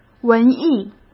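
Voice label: "文艺", Mandarin Chinese; hsk; chinese-flashcards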